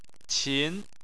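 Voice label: "qin", Chinese, tone 2; Chinese Surnames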